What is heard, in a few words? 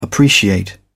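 "appreciate", British English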